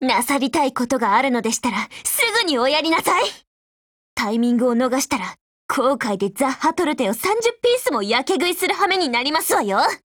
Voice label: DIALOGUE JP